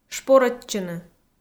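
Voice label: "шпорӧдчыны", Komi, female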